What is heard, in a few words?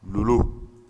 "lolo", Bambara; nature